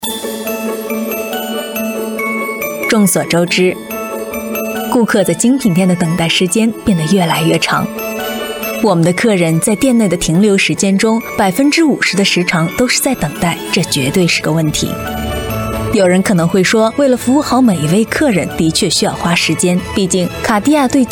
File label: chinese voice over female elearning